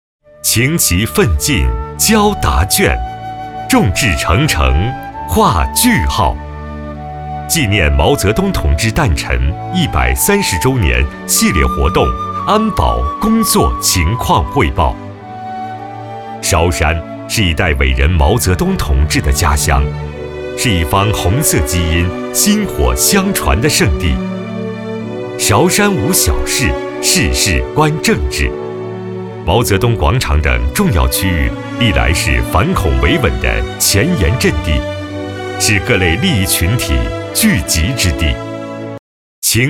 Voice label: 男 党政汇报片浑厚; 纪念毛泽东同志诞辰

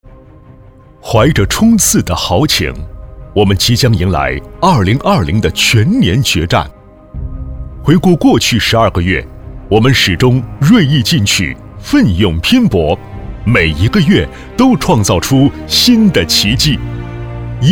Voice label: 男 专题

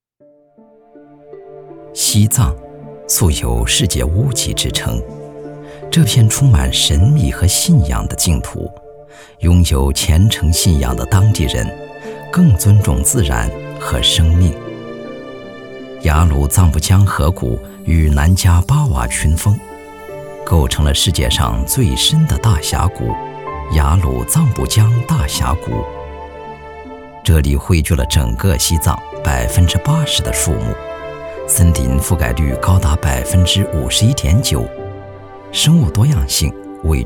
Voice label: 男 纪录片 林芝松茸

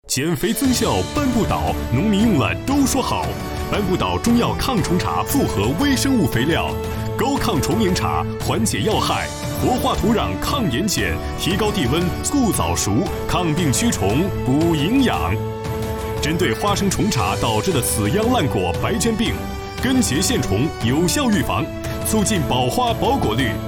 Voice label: 英男 中文促销 肥料促销广告; 扳不倒

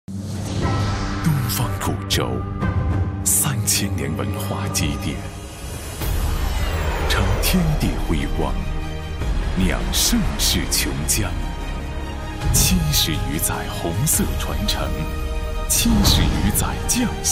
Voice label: 男 广告 酒类

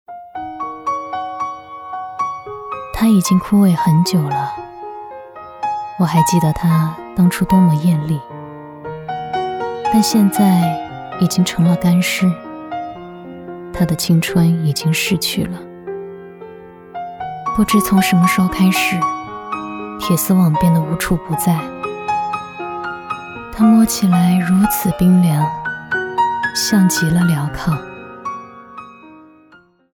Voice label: 女 短视频旁白 伤痛文学女主

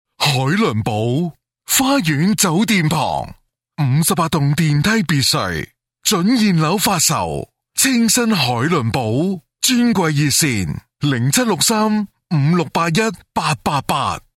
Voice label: 粤男; 凯伦堡

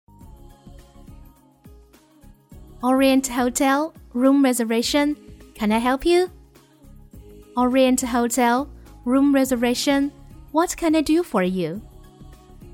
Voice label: 英女 酒店前台英语

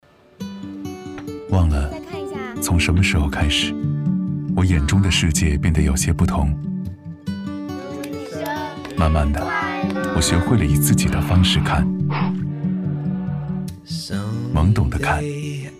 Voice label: 男 深情 宣传片; 暴龙眼镜

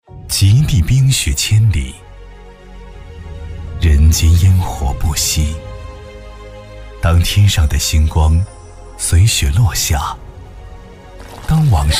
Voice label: 男 冰雪盛宴; 吉林卫视

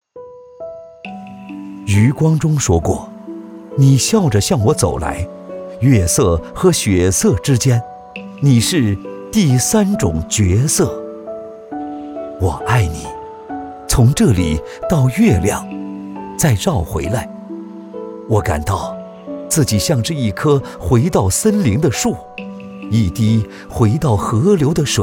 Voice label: 男 走心旁白; 余光中说过

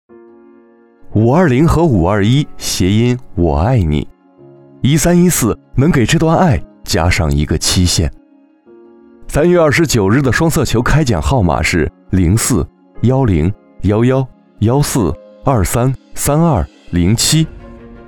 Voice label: 男 走心

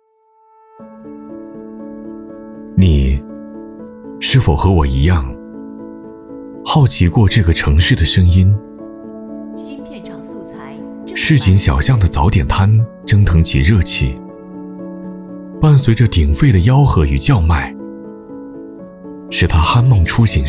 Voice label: 男 走心旁白 温暖大气讲述

